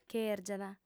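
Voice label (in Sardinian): "chèrgiat", female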